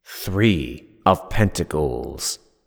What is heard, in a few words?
Three Of Pentacles Voiceover